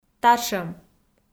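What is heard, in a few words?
"татшӧм", Komi, female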